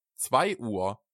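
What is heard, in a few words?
"zwei Uhr", German; 2:00 Uhr; druga; Czas zegarowy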